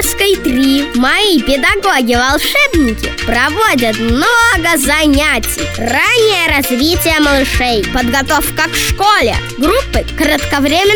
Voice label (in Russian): детский голос